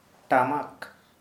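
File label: Santali, male, drum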